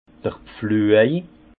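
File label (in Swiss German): "pfluej", Haut Rhin; vin; charrue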